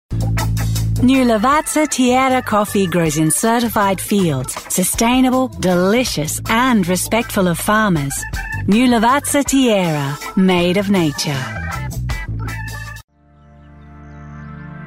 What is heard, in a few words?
Australian Commercials